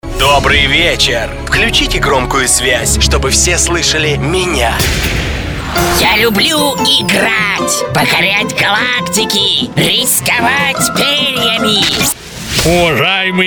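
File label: parody Russian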